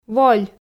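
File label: "воль", Komi, female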